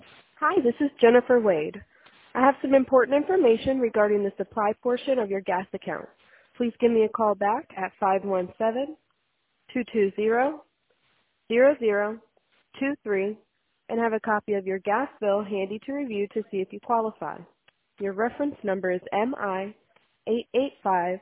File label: voicemail gas bill sales scam